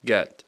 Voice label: "get", English